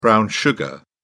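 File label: "brown sugar", British English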